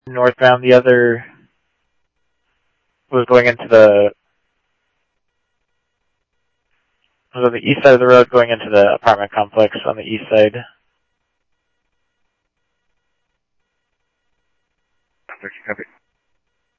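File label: Mesa Simulcast Police Department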